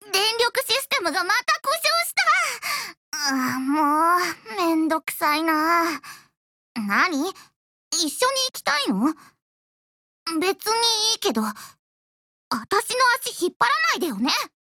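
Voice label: dialogue Japanese; max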